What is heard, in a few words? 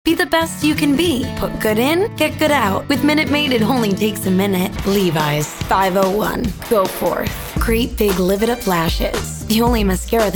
Commercial